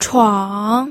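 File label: "chuang", Mandarin Chinese, third tone; pinyin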